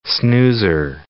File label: "snoozer", english